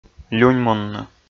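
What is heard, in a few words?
"люньмунны" Komi, male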